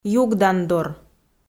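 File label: "югдандор", Komi, female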